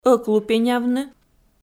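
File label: "ӧклупенявны", Komi-Zyrian, female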